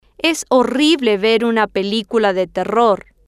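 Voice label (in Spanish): es horrible ver una pelicula de terror, Spanish, female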